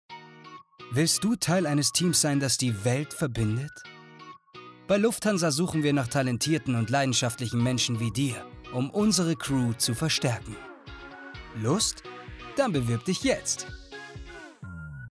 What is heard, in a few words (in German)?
Imagefilm Jobsuche